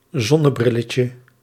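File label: "zonnebrilletje", Dutch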